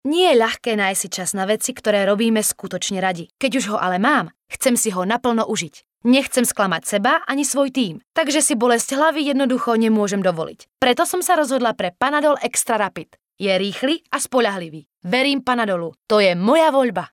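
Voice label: Female Slovak commercial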